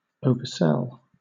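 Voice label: "oversell", British English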